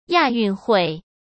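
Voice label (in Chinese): "亚运会", Mandarin Chinese; hsk; chinese-flashcards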